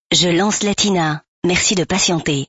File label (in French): lancement radio; latina